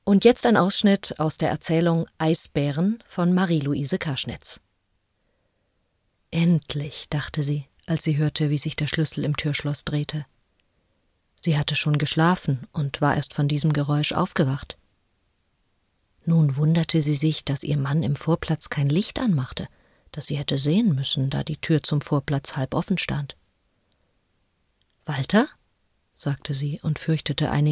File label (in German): erzaehlung; kaschnitz